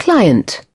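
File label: "client", British English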